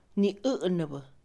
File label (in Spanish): demandante, Mixe, Mujer; INALI